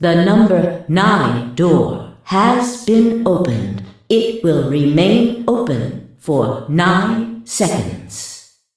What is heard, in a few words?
door opening announce; door nine